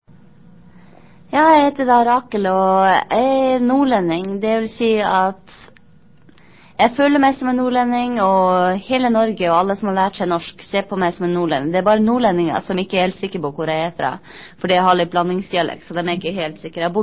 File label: dialect, norwegian